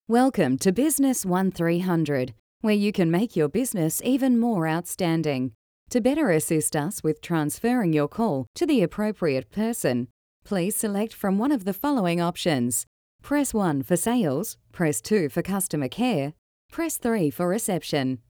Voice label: Professional Business